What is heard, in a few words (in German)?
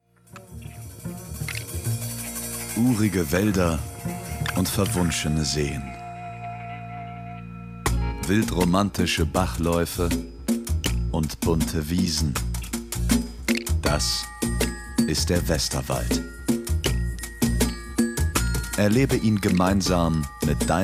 Imagefilm; Westerwald